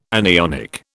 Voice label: "anionic", British

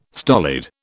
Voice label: "stolid", British

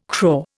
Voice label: "craw", Indian

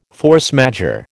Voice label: "force majeure", American

